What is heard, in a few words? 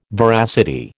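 "veracity", American English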